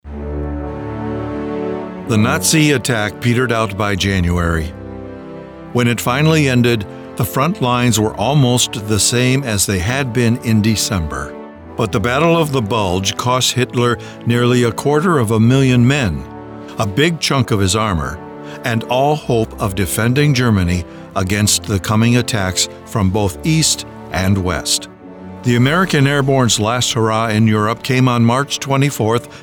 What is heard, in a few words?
WW2 Narration Documentary